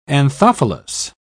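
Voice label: "anthophilous", British English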